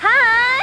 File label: hi, Hi (Woman); katamari